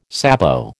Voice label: "sabot", American